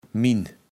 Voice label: "Minn", Platt

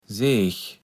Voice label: "Sech", platt

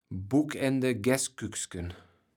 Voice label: "Buckende Gässkücksken", kaevels platt

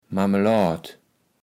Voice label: "Mammeload", kaevels platt

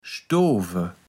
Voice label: "stoowe", Platt

